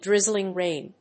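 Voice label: "drizzling rain", English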